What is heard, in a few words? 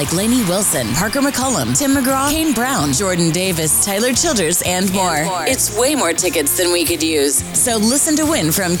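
country imaging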